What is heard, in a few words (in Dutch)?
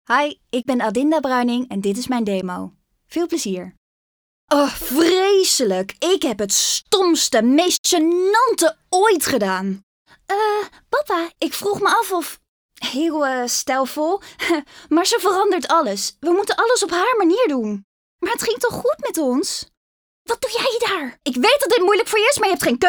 Nasynchronisatie